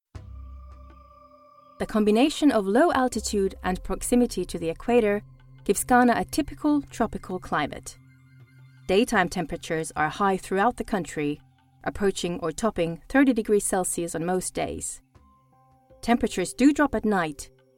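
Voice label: Finnish English, female, tour guide, accent of finland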